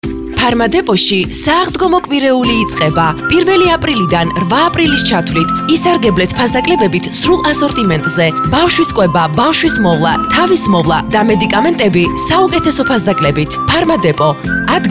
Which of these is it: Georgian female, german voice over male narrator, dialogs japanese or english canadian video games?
Georgian female